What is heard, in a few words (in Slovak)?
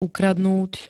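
"ukradnúť", Slovak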